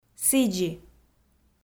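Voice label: "сідзи", Komi, female; audio dict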